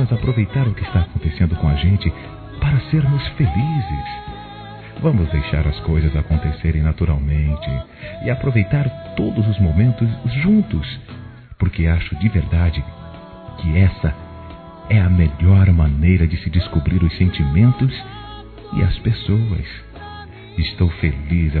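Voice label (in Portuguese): COMEÇO NAMORO, masculino; Telemensagens; Telemensagens 2017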